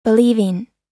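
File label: "believing", American English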